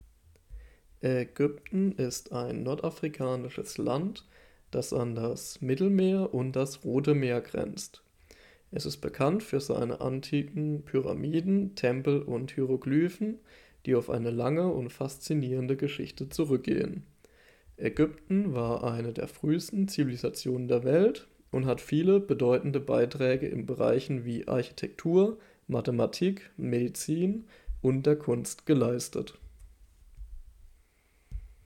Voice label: USB Mikrofon Soundtest; FIFINE K670

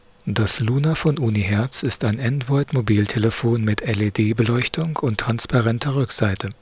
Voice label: Mikrotest USB

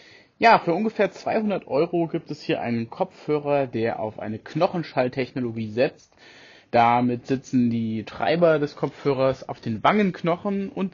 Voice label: shokz openrun pro mikrofon

